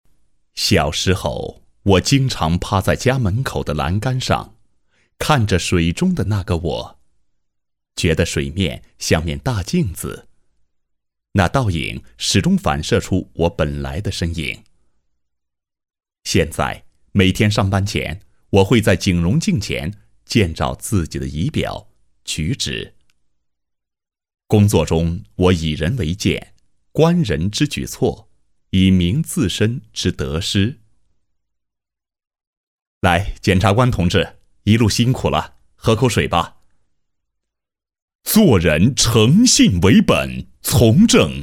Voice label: 旁白 监察自白,男; 干音; 新闻广告专题颁奖

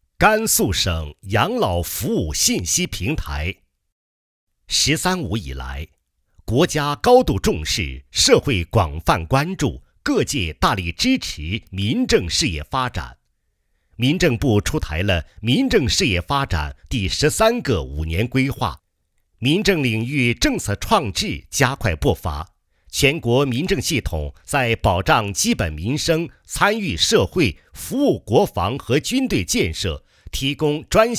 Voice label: 养老服务 智慧民政视频宣传片配音

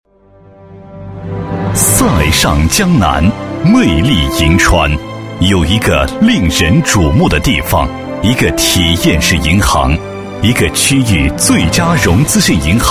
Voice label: 男 企业; 塞上江南 最佳融资性银行宣传

